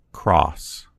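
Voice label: "cross", American English; gcompris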